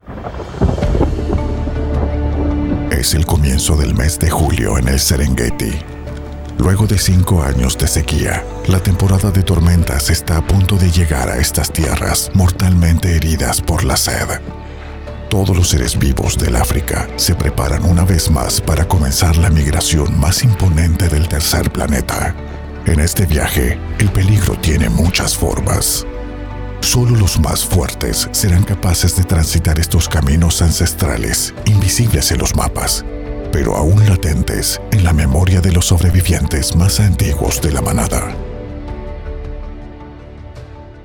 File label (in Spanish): Locutor Documental; Serengueti